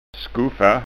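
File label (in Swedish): "skoffa", Scanian dialect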